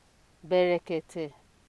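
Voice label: "berekete", Nigerian Pidgin, female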